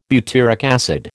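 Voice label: "butyric acid", American English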